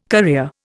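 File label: "career", Indian English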